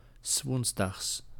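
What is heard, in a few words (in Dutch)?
"'s woensdags", Dutch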